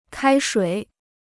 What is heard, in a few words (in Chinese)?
"开水", Chinese